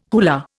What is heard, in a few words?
"hula", Indian